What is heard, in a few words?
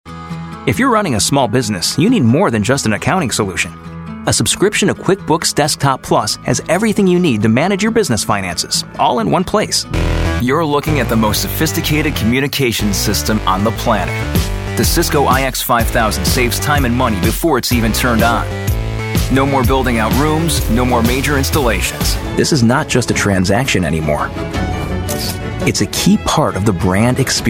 Corporate